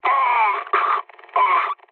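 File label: damaged pilot, mood high, French; War Thunder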